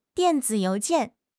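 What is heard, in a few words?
"dian4 zi3 you2 jian4", Chinese; HSK; HSK3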